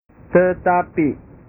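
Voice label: "tetapi", Indonesian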